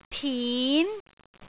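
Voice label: "tin2", Cantonese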